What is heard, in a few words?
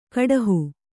"ಕುಡುಹಿ", Kannada